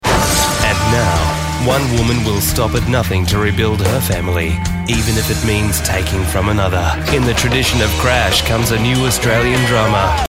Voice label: Drama